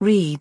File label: "read", English; express english